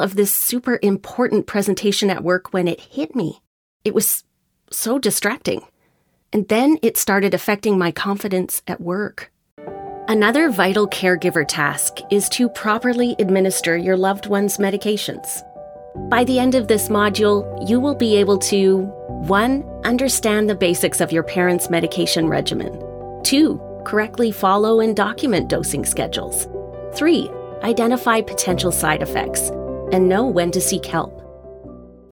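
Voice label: eLearning, Female Voice Over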